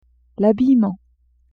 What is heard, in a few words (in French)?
"habillement", French; vetements